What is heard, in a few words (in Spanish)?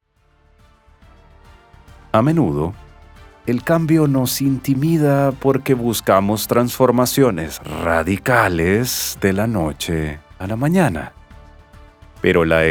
Audiolibro; La arquitectura de la excelencia